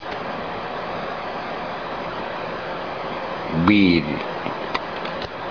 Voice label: "Bir", Tobi; A tuna catching technique, using large voyaging canoes, Waribir; Fishing Lore of Tobi

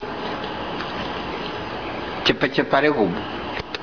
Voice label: "chapachapari hub", Tobian; foot; Erap chapachapari huubar. He has big feet.

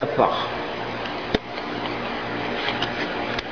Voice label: "yafah", Tobian; shoulder; Quackenbush Word List No. 46

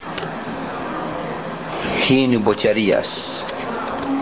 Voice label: "Hirifeten", Tobi; Strut holding up outrigger boom; Canoe part: Hirifeten; Fishing Lore of Tobi

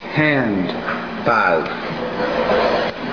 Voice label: "pau", Tobian; arm; hand from wrist down; Quackenbush Word List

